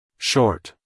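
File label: "short", American English, male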